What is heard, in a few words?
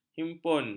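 "himpun", Malay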